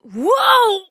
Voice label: Horse Stop Adult; Duviri

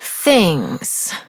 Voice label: Operator Voice; Warframe; Sacrifice Quest; Mimic

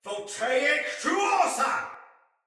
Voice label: Attack Soldier Male; Battle; Sinecure; en

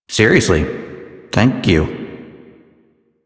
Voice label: Citizen Male, en, Mall; Warframe; Citizens

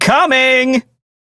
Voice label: Crew Follow, Male; Warframe; Railjack; Perrin Sequence

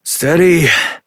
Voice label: Horse Calm Adult; Duviri

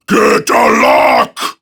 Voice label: Enemy Spotted Prime Grineer Male; Tau; Battle Barks

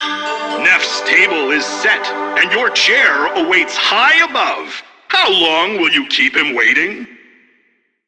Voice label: PA System, en; Warframe; Solaris Venus

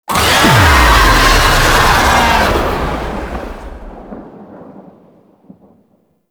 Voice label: Boss Vocal Transition Stinger; Enemies; Vocals; Warframe; Oraxia

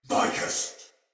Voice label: See Ally Die, Soldier Male; Battle; Barks; Dialog; Sinecure Soldier Male B

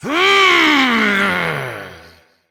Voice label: Frustration; Battle Barks; Evil Twin; Warframe; EntratiDoppelganger

